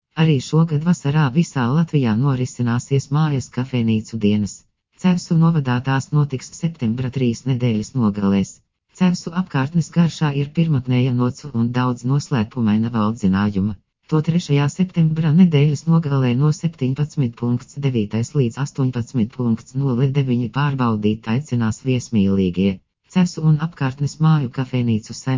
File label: Synthesized Audio